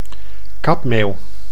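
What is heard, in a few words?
"kapmeeuw", Dutch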